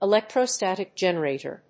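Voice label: "electrostatic generator", English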